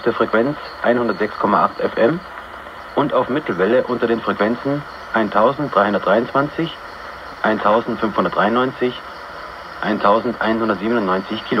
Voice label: Identification of Radio German Local Program in Romania; Radio Neumarkt